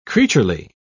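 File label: "creaturely", British English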